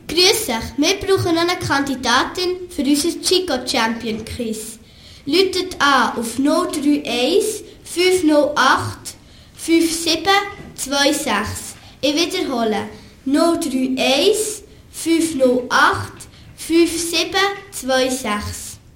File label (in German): Ansage Quiz; Chico Champion Quiz; Herrenschwanden